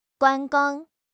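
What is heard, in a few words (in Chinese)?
"观光", Chinese; HSK6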